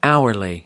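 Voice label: "hourly", American English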